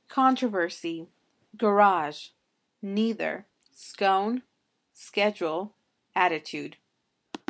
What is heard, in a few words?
rio rancho new mexico female